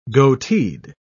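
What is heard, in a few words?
"goateed", British English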